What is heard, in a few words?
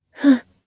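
Vox Casting Japanese; Kara; kings raid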